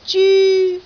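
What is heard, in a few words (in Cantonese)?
"zyu1", Cantonese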